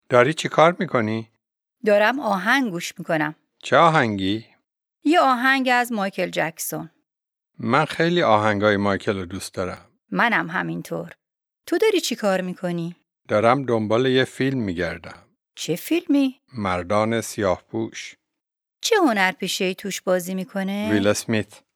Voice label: Dialogue lesson Farsi